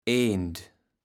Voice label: "Aend", platt